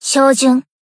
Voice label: Battle Shout; Eimi